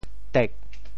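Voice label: "tek4", Teochew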